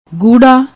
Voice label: "guhrra", Punjabi; ਗੂੜ੍ਹਾ